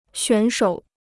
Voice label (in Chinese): "选手", Chinese